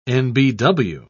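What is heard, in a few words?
"nbw", British English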